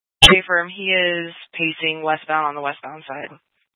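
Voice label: Sheriff Dispatch, Scanner audio recording archive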